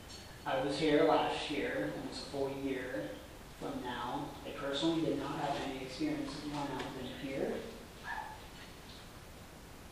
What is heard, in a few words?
Ktichen Voice